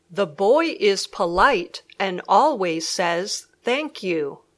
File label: polite sentence